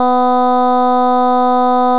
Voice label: child "O"